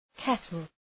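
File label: "kettle", English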